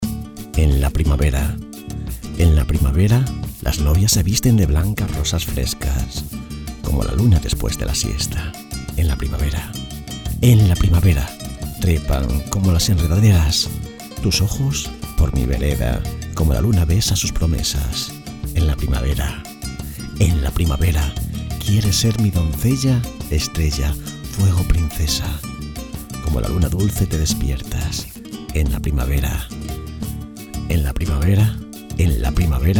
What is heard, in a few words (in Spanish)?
poema romantico; en la primavera